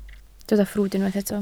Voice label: "ffrwd", Welsh

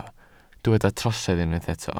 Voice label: "trosedd", Welsh

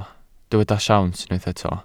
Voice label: "siawns", Welsh

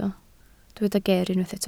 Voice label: "ger" Welsh

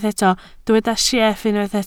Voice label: "sieff", Welsh

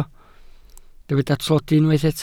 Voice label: "tlodi", Welsh

